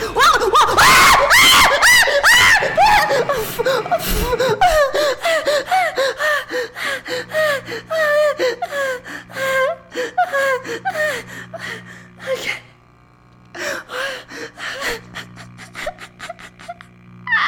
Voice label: scared